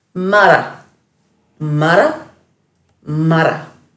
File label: "marra", Dhurga